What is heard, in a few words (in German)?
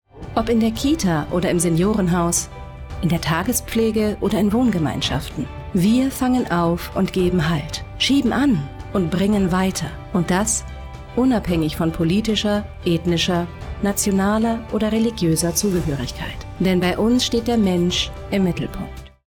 Imagefilm Recruiting; ASB